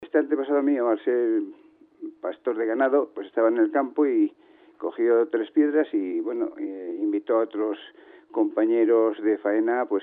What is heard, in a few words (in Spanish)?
noticias